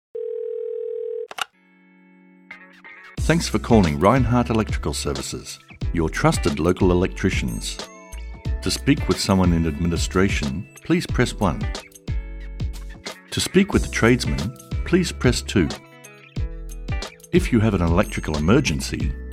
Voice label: On Hold IVR; Rhinehart Electrical Services